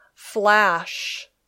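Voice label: "flash", English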